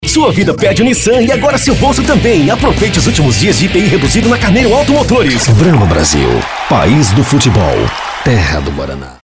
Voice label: locutor brasil; locutor de Brasil

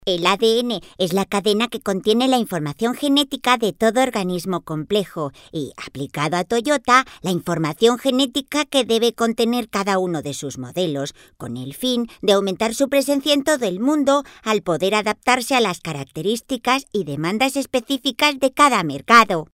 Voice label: robot cd multimedia; locución para cd interactivo multimedia. Curso de formación actuando como robot.

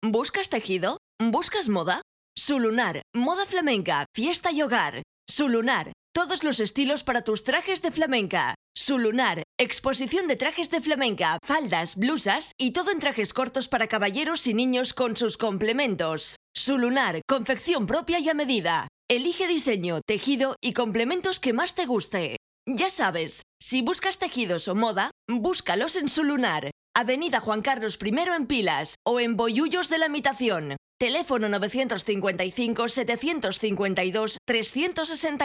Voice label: locutora

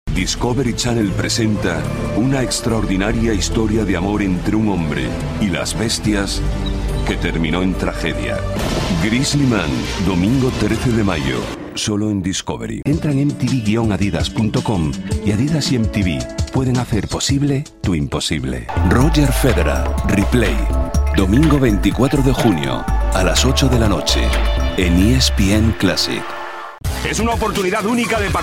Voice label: locutor, Spanish dubbing voices

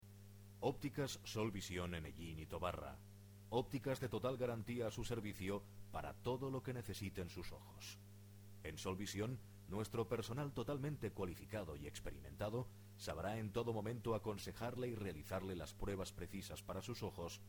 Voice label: locutor

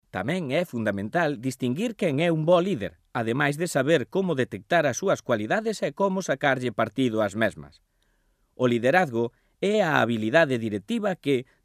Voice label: locutor galego; Galician male voiceovers

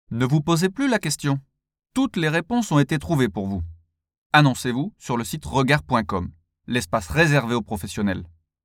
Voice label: locutor frances

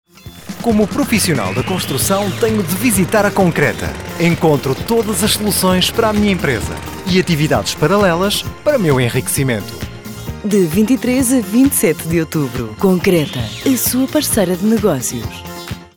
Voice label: locutor portugues

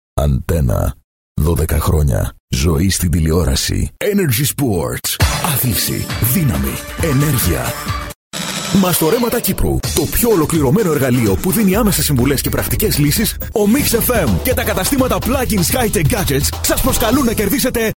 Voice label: locutor griego; Greek voiceover

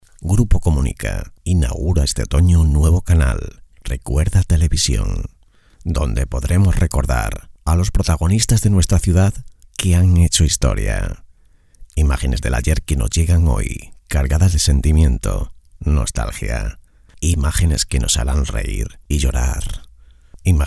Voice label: locutor promo television

